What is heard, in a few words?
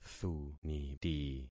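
"thuniti", concatenated syllables; Affixing experiment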